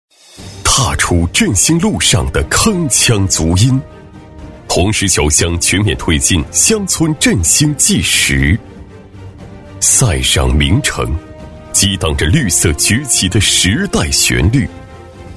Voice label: 男, 政府专题, 大气有力; 男202-厚重有力 大气浑厚; 宣传; 红石桥乡宣传片

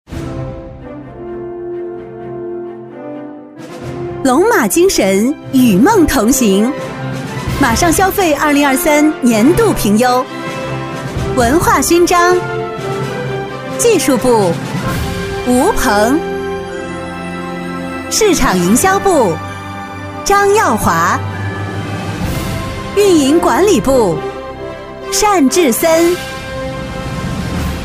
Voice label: 女, 颁奖, 活泼甜美; 年会颁奖配音; 女42-颁奖-活泼甜美

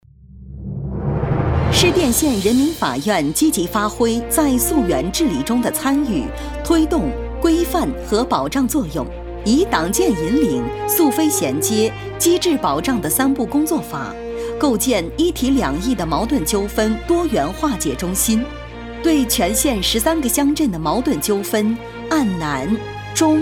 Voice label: 女 专题 大气稳重; 扬枫桥经验; 亲和稳重; 沉稳大气

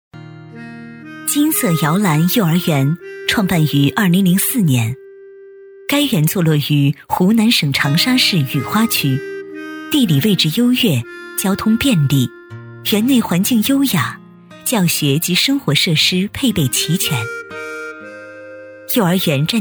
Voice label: 女, 幼儿园, 甜美温馨; 学校专题; 甜美亲切

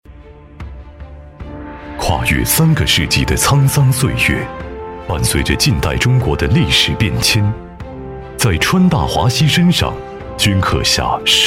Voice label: 男 企业专题 雄厚稳重; 磁性品质; 质感磁性

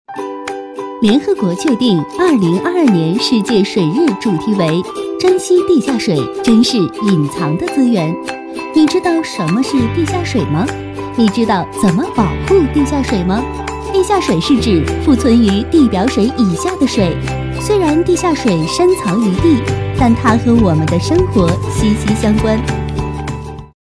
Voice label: 女, MG, 世界水日

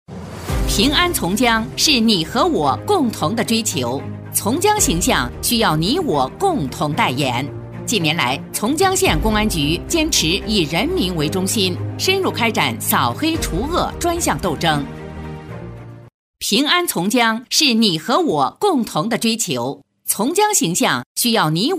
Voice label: 女 党建专题 公安局 汇报正式; 从江县公安局; 正气稳重; 沉稳大气

